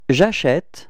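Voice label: "acheter je", French; frans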